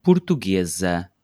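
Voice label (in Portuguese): "portuguesa", português europeu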